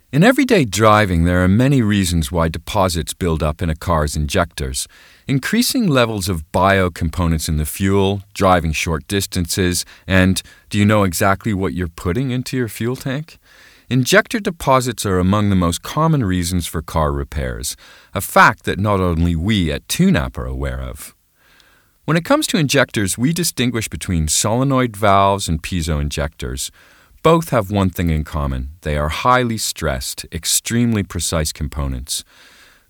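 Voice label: US English, Neutral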